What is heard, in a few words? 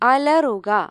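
"scream", Malayalam; gcompris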